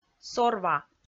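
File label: "сорва", Komi, female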